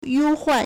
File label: "you1 huan4", Chinese